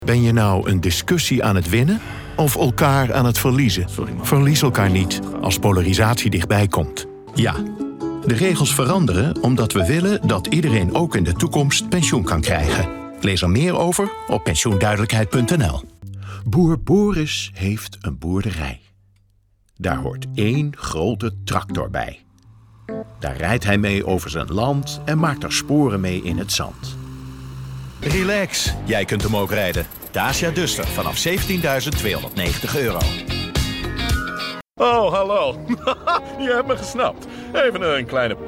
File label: voicedemo